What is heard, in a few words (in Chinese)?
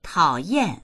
"tao3yan4", voices